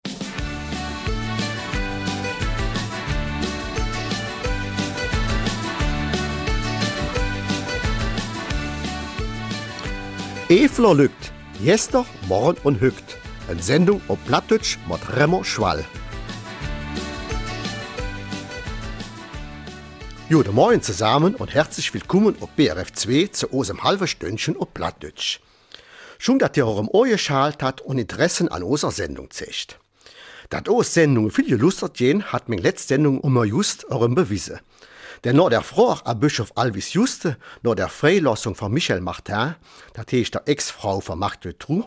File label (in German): Eifeler Mundart; Zehn Jahre KAV